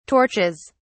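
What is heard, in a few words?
"torches", British English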